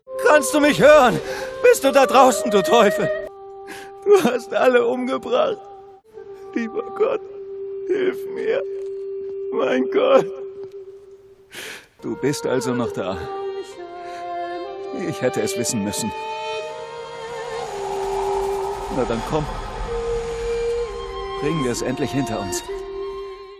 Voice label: verzweifelt; rapture

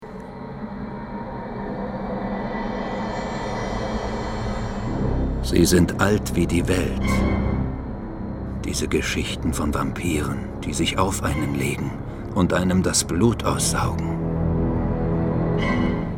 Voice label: erzaehlung

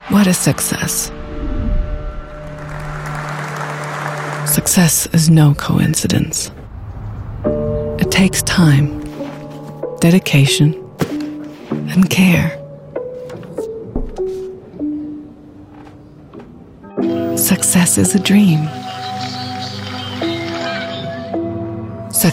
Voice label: US Werbung Bank; UBS

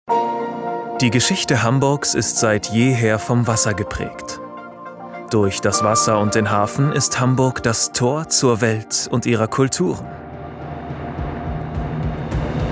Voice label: imagefilm ruhig stolz voiceovers; hamburg

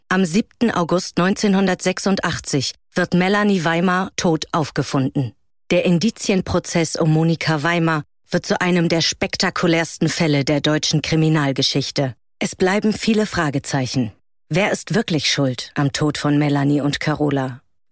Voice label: Dokumentation

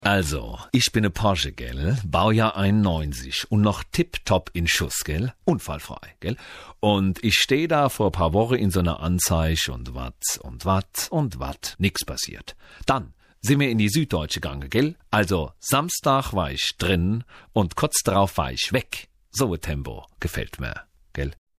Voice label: Dialekt Hesse

